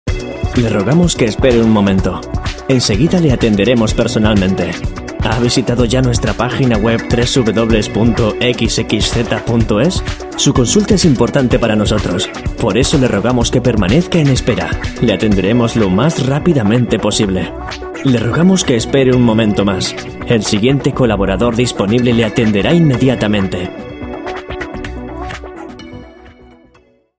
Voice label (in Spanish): esp